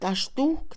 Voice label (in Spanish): nueve, Mixe, Mujer; Cantidad; INALI